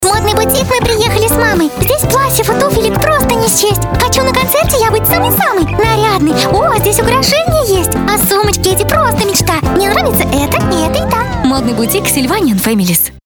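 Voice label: playful Russian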